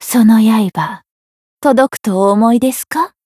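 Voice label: variable chant, Japanese; hoozuki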